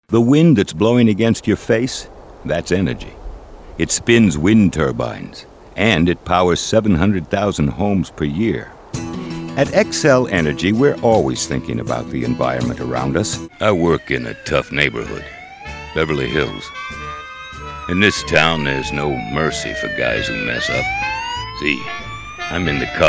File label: Commercial